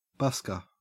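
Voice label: "busker", Australian English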